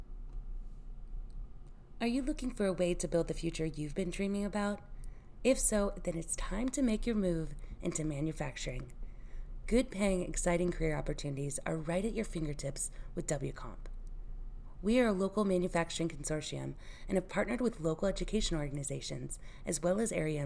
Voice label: VO Radio Spot